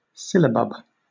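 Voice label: "syllabub", British English; pronunciation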